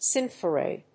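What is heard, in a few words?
"Synthroid", English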